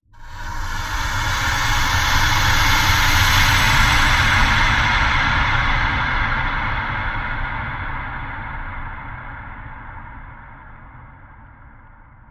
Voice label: Magical Fairy Whisper